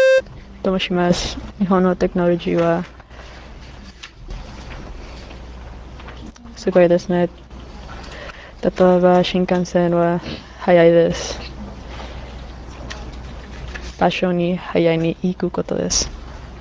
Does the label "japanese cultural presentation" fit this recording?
Yes